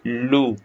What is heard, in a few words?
short voyels arabic alphabet